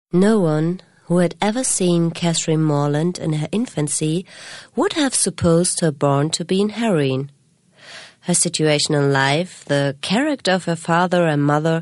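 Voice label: British English Schauspiel Neutral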